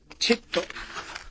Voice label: "chetto", minamiyamagata